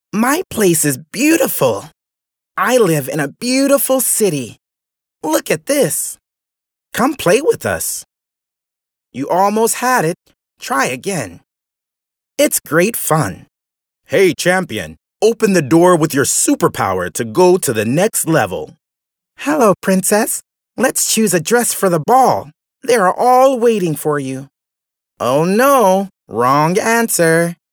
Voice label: US male children